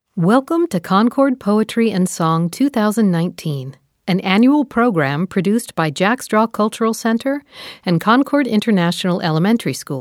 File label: Opening Narration